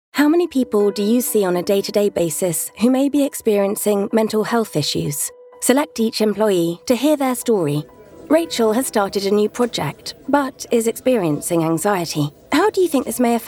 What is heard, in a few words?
E Learning Showreel; Narration Demo